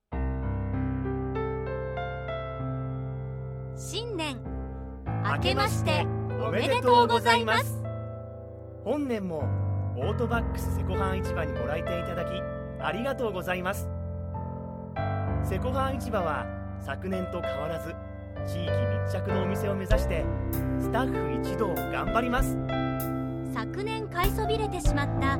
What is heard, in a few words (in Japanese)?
正月ナレーション; セコハン